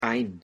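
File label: pronunciation "ein", German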